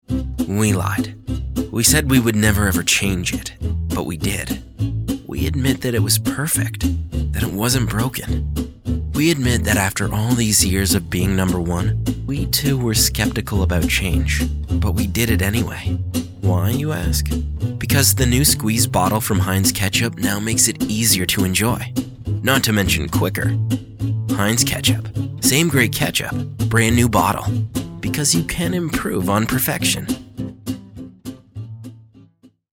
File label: Commercial VO; Heinz Ketchup